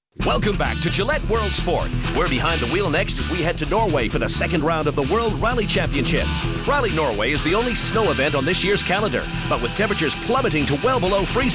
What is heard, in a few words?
narration